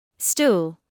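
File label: "stool", British English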